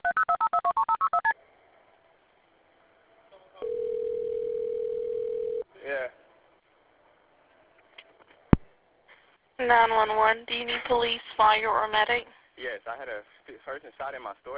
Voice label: 911 call; Police Release 911 Call About Man Shot And Killed At Carolina Place Mall; WCCB Charlotte